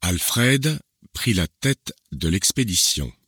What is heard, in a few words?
breathy, voice transformation